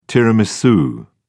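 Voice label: "tiramisu", British English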